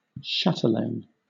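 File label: "chatelaine", British English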